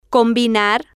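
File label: "combinar", Spanish, female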